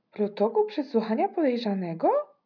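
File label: female question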